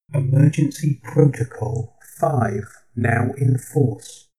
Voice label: male system status

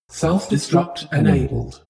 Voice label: space shuttle female status report

